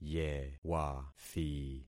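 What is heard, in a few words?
"yewathi", concatenated syllables; Affixing experiment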